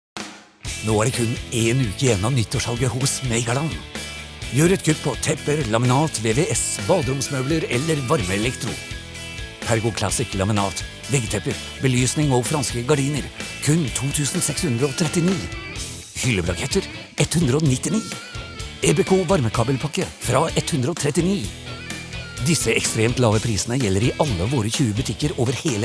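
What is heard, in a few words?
Norwegian hard sell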